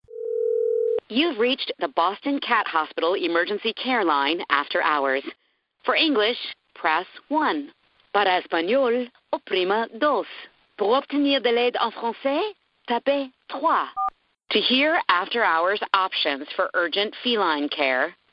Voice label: IVR TELEPHONY VO